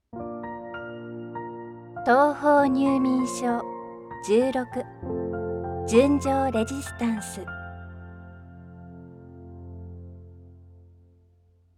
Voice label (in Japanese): タイトルコール, ASMR